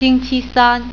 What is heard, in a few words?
Wednesday, mandarin